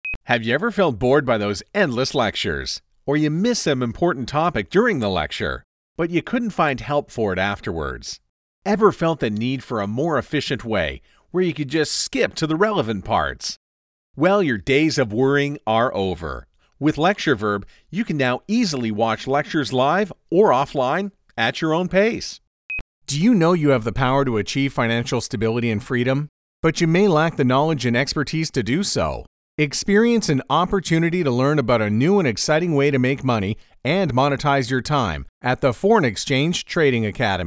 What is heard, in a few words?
Narration